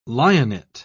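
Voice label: "lionet", British English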